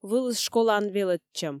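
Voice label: "вылыс школаын велӧдчӧм", Komi, female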